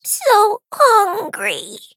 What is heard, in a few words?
hit, en; cookie run